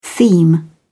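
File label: "theme", British English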